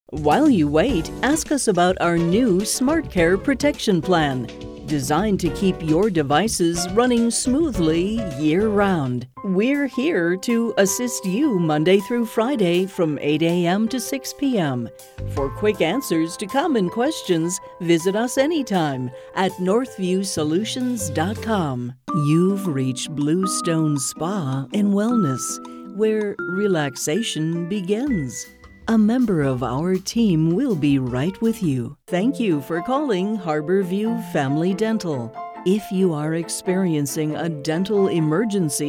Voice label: On Hold